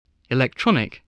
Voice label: "electronic", English